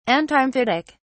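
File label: "antimephitic", British English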